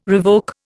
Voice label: "revoke", Indian